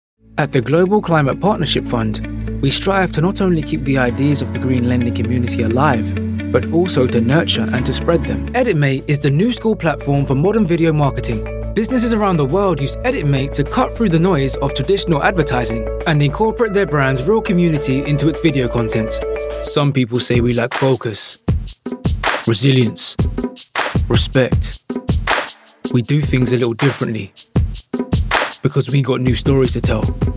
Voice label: corporate